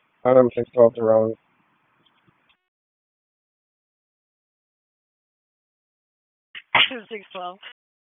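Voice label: Police Southwest District Dispatch, p25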